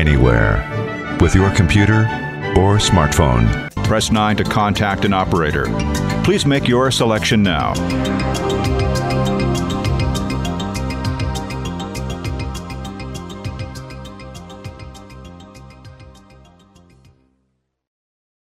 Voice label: Voice Mail Hold